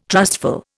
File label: "trustful", Indian